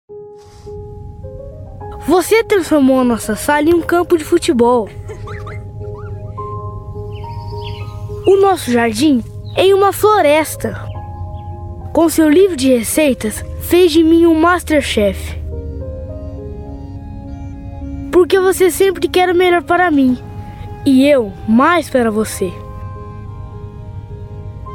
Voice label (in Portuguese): infantil